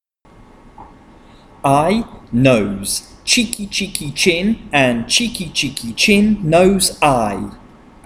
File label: Digraphs Chant ch